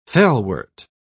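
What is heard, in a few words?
"felwort", British English, dictionary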